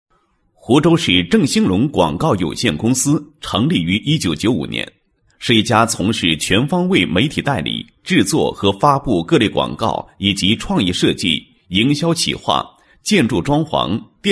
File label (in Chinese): 公司简单广告公司录音, 国语男声配音